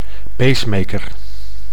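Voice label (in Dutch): "pacemaker", Dutch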